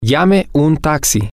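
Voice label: call a taxi, spanish, male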